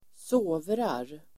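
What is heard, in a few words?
"sovrar", Swedish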